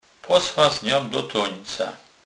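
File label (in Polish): "tonec", Kurpie dialect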